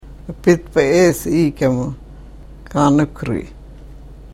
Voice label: karuk